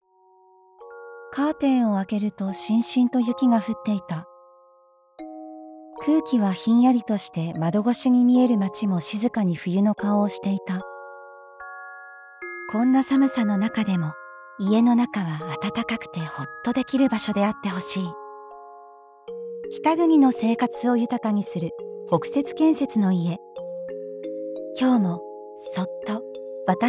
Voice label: japanese real estate

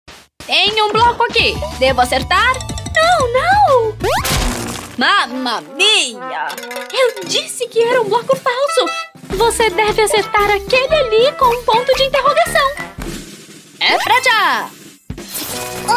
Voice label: Portuguese Brazilian impersonations

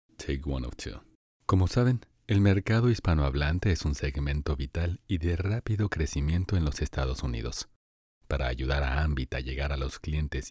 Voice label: spanish latin american commercial

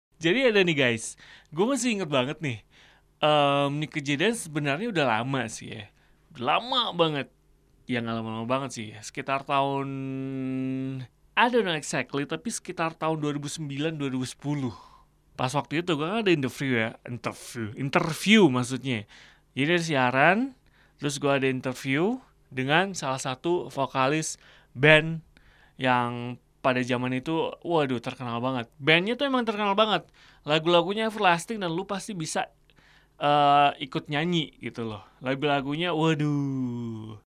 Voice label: indonesian podcasts

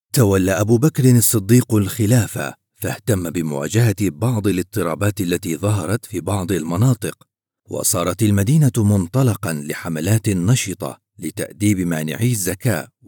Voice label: turkish documentaries

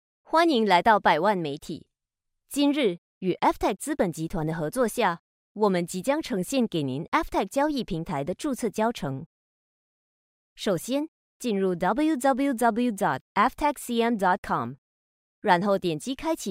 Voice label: chinese mandarin e-learning

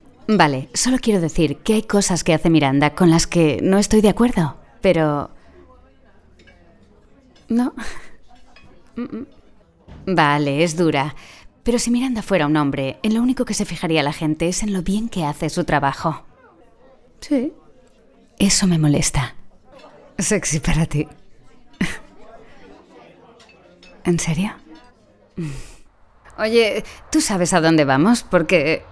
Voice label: spanish castilian commercial

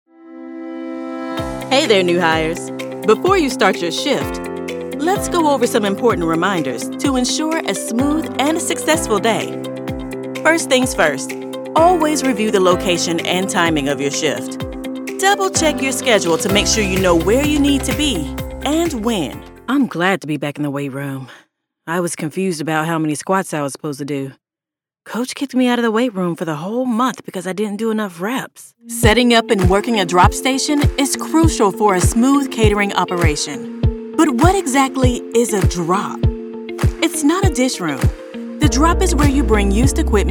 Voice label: english american e-learning, voice actors

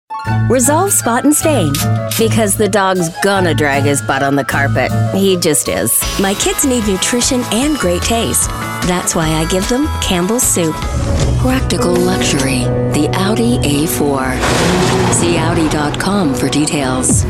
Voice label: english american

